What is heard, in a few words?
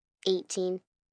Numbers "Eighteen", 1 child, girl counting